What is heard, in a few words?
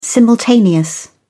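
"simultaneous", British English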